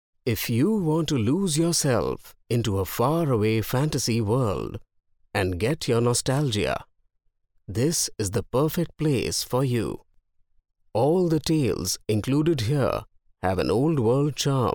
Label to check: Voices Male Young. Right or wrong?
Wrong